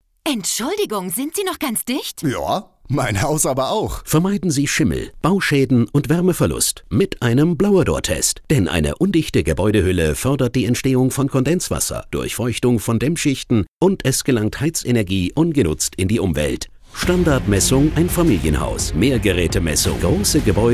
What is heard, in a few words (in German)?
Radiospot; UMV BlowerDoor Messungen GmbH